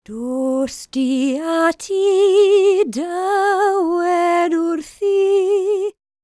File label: WELSH F, World Voices